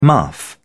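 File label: "muff", British English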